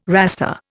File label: "raster", Indian